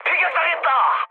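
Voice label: Korean aircraft destroyed mood high, speech; War Thunder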